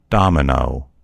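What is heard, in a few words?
"domino", American English; gcompris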